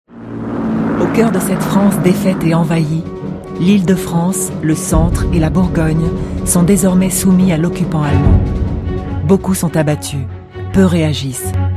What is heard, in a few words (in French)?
NARRATION; les Resistances Soulevement